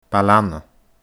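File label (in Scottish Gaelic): "Baile Anna", Scottish Gaelic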